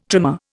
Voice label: "trimer", Indian

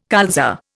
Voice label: "colza", Indian

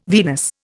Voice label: "Venus", Indian

